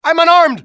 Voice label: "unarmed", english male; XBOX; civ